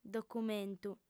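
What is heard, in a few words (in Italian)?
"documentu", lingua sarda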